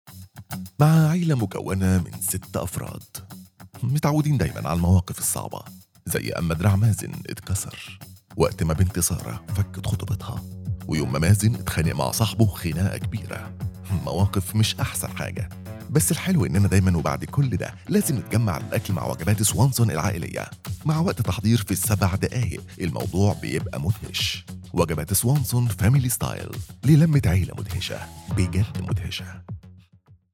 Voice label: male arabic egyptian dialect family style commercial; swanson